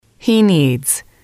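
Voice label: "he needs", English, female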